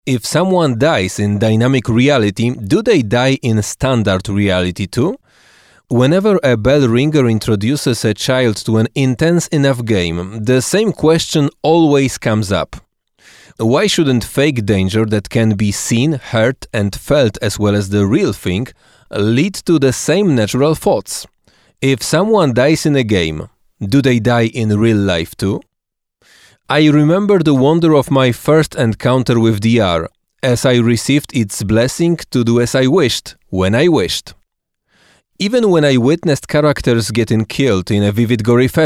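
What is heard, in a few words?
Angielski, English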